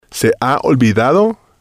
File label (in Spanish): "se Ha Olvidado", spanish